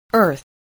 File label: "earth", English